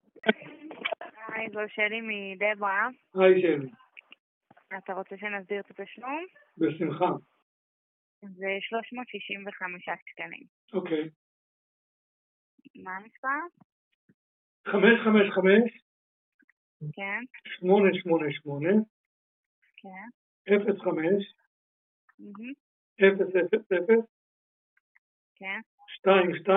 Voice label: call recording